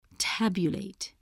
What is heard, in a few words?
"tabulate", English